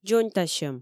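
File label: "дзоньтасьӧм", Komi, female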